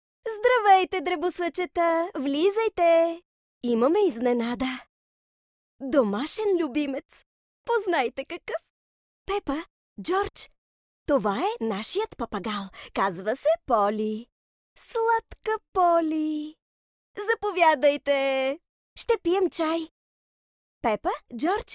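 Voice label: bulgarian female character; voice demos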